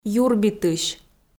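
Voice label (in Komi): "юрбитысь" Komi, female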